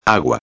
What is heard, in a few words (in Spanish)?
agua, espanhol; os alimentos; vocabulario; curso espanhol